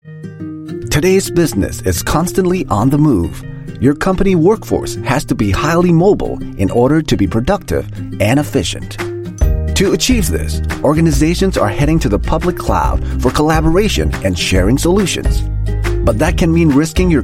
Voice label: Asian English Explainer Video